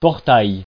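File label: "portail", French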